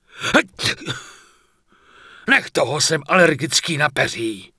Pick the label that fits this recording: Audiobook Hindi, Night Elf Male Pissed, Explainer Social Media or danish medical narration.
Night Elf Male Pissed